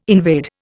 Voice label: "invade", Indian